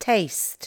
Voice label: "taste" english